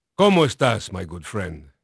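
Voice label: Spanish male, voice; Pirates Of The Caribbean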